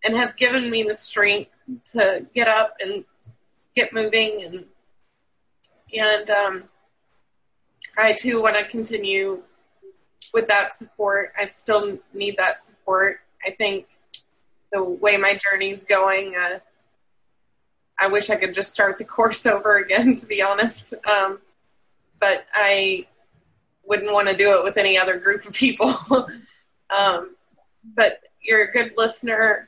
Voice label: Testimonial phone call